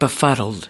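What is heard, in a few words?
"befuddled", US English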